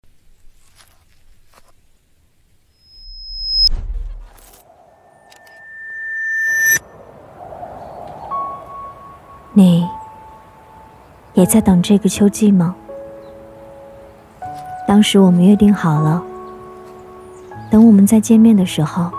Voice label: B女 走心旁白 素人 微电影独白

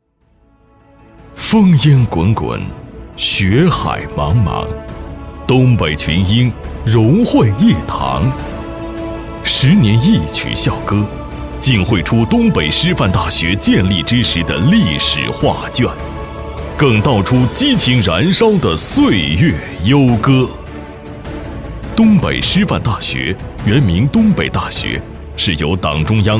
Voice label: 男, 院校专题片

